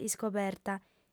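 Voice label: "iscoberta", Sardinian, female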